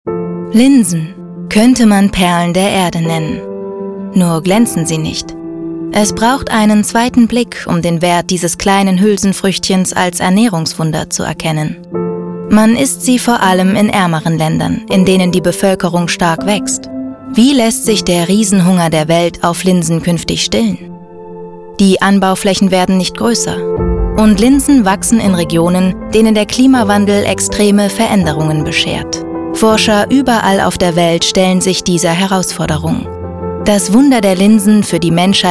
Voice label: Doku; Linsen; LOFT VOICES

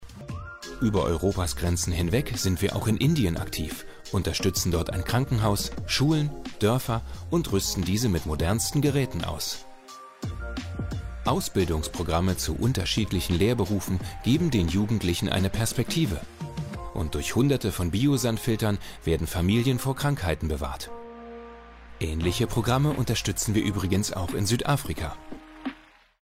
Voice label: Imagefilm

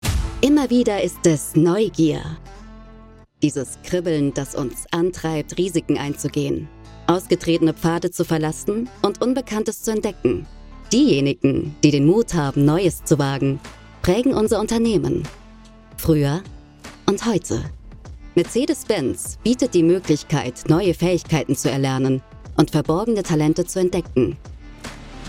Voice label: Imagefilm Pioniere; Mercedes-Benz

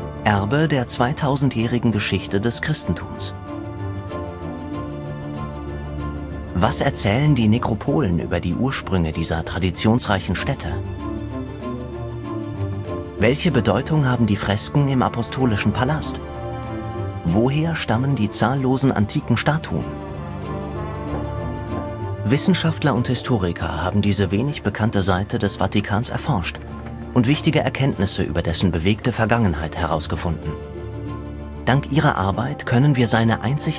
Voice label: Doku, Kommentar, German; Doku Kommentar Vatikan; voice

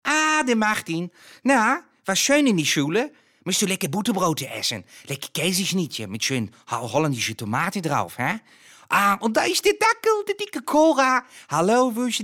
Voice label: comedy

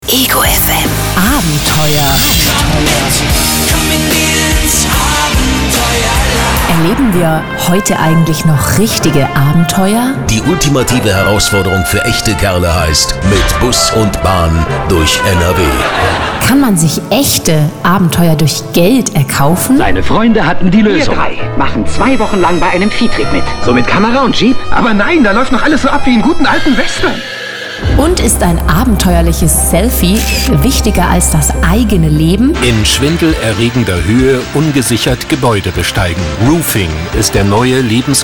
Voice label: Werbung Programmpromospot

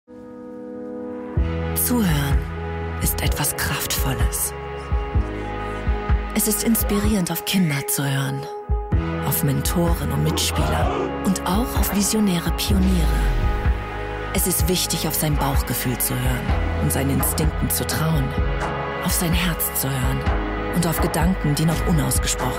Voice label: listen deutsch; audi

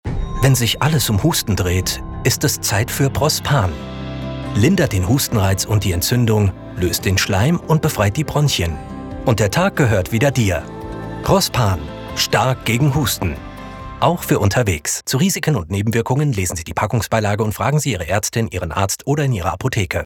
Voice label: Werbespot Hustensaft; Prospan Hustensaft; LOFT VOICES

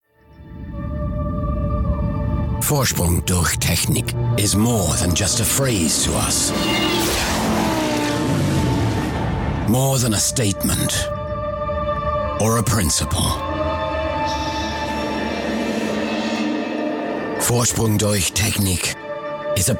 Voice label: uk englisch; voxhaus hh

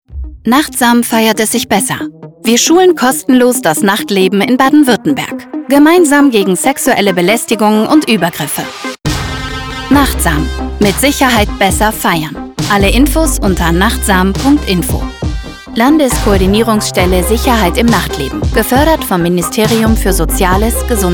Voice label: Funk Spot

